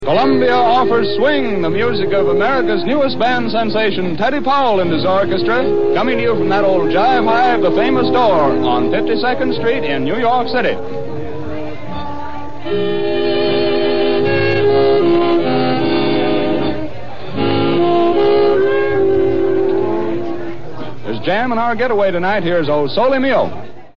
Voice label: CBS announcer